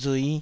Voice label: "rui5", taiwanese